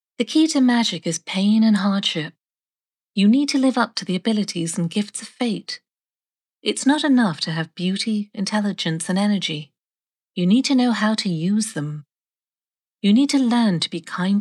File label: Narration Elves UK sample